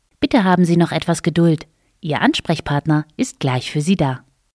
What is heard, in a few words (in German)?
Warteschleife